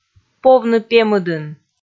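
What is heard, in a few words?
"повны пемыдын", Komi, female; kpv audio dict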